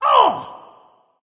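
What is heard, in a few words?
ouch, dragon; fafnir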